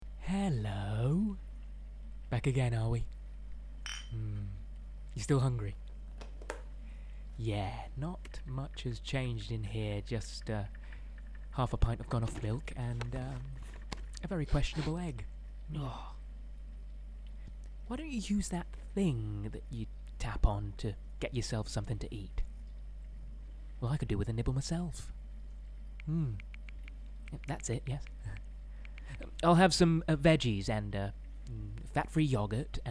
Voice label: male slight midlands accent fridge commercial; english and italian